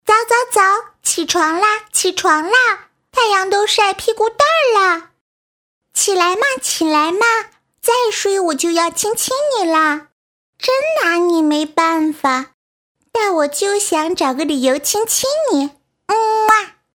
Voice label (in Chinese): 女童可爱萌